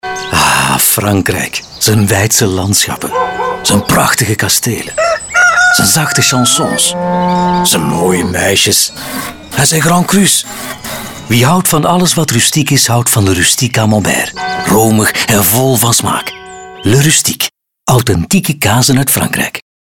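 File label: Flemish, male, commercial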